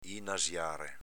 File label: "inasiare", dialetto veneto